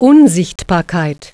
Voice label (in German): invisibility Cast, german